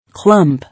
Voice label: "Clump", English